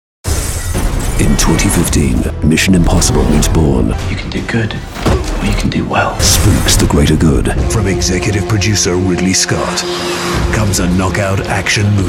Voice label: british trailers